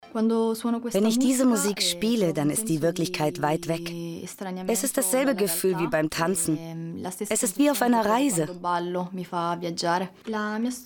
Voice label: voiceover; laender menschen abenteuer